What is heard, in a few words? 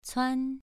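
"cuan" Mandarin, second tone, pronunciation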